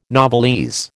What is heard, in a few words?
"novelese", American